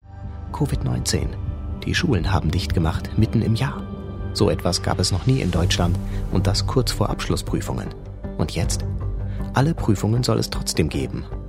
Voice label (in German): Kommentar TV Doku